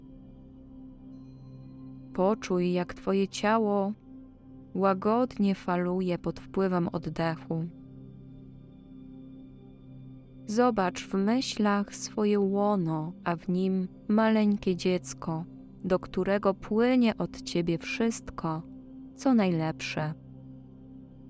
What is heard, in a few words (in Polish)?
Krotka medytacja; polaczeni